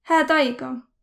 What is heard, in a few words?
goodbye, Võro